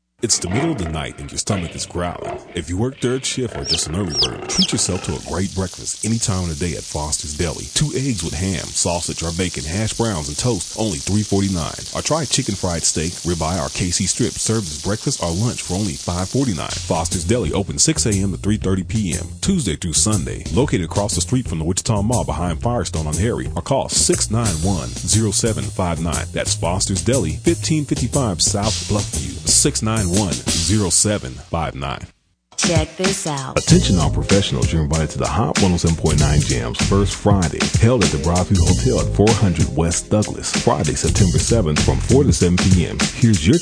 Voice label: Voiceovers